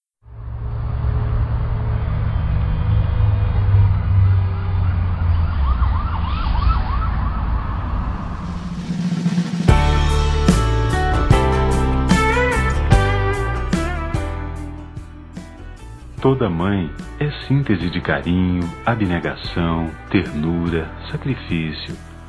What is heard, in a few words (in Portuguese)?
Mãe adotiva, Masc; Dia das Mães; Telemensagens 2017